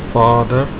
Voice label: father, Afrikaans